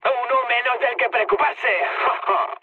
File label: player killed enemy, mood med, speech; War Thunder